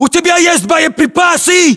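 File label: status check, battlechatter, Russian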